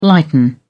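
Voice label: "lighten up", English, British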